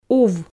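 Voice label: "ув" Komi, female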